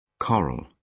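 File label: "coral", British English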